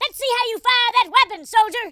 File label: "watch this", Drill Sergeant; speech